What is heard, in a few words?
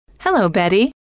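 hello, female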